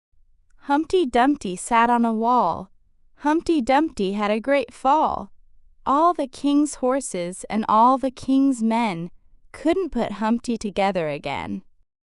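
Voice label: female US, classic rhymes; Humpty Dumpty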